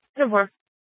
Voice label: Police Department Dispatch, Simulcast; Apache Junction; Mesa Simulcast